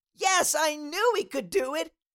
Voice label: Cartoon little child voice i knew we could do it